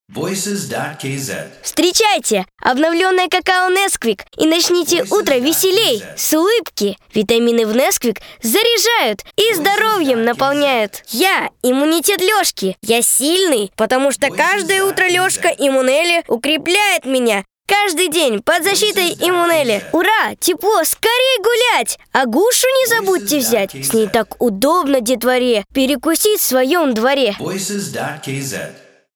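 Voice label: Russian kid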